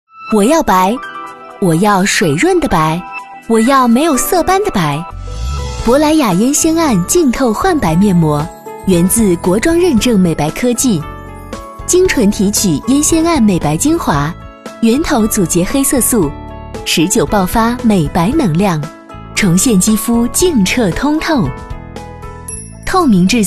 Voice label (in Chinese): 女 广告 面膜 年轻; 珀莱雅烟酰胺面膜